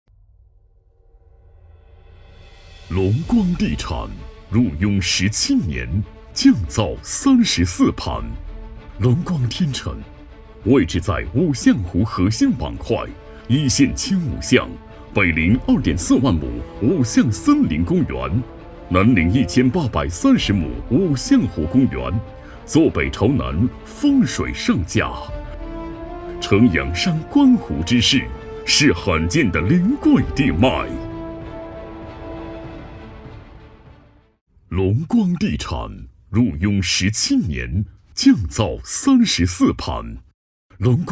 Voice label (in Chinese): 广告地产浑厚大气类型